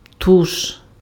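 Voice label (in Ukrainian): "туш", Ukrainian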